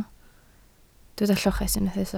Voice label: "lloches", Welsh

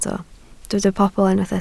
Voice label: "pobl", Welsh

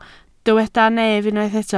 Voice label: "nef", Welsh

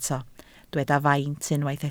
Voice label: "faint", Welsh

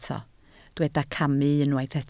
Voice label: "camu", Welsh

